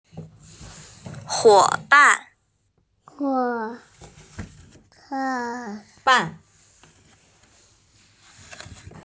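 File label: "huo ban", Mandarin Chinese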